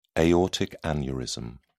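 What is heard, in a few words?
"aortic aneurysm", British English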